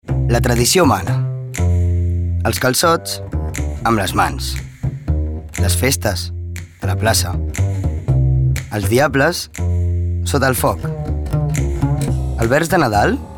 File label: male catalan food comercial; ideasonora